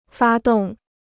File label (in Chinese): "发动", Chinese